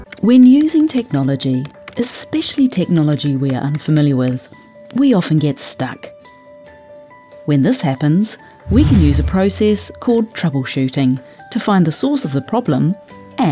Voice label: Voiceover Showreel